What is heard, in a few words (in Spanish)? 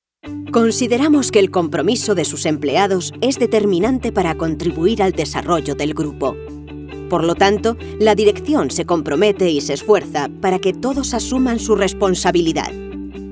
corporativo